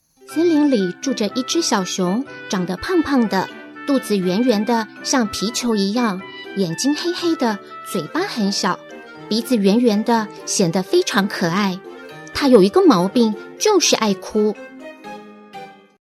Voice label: story